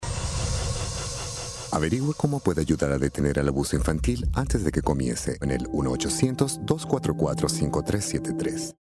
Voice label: Serious

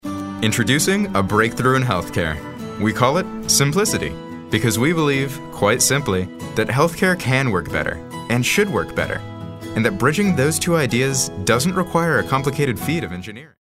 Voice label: millenial

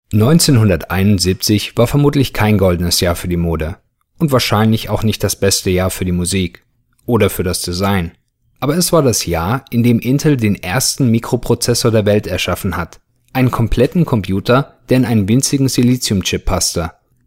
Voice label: German

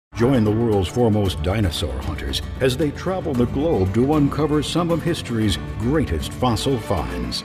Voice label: informative narrative ominous

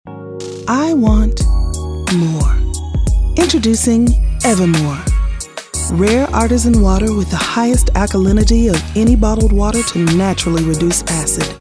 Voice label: smooth mellow

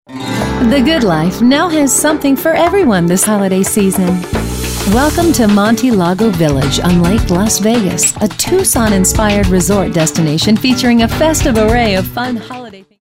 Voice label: upbeat

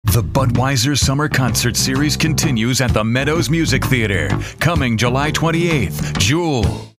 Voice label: authoritative

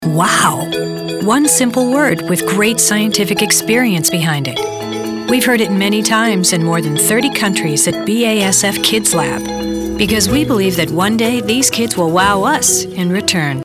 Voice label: Commercial; BASF

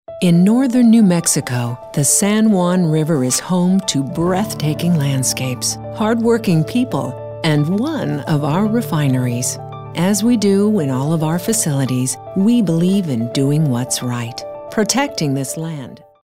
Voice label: storytellers

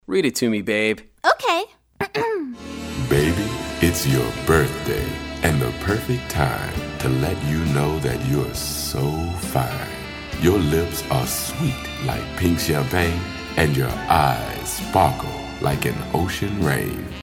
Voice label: Romantic

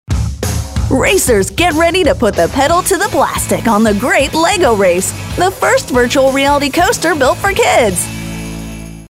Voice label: Upbeat

